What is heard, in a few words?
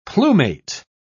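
"plumate", British English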